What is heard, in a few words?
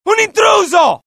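alert, Italian; mohaa; dialogue; sighted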